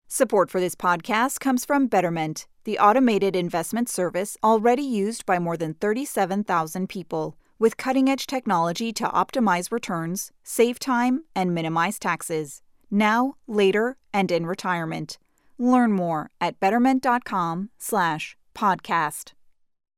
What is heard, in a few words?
PodcastIntro; Betterment; Slash Podcast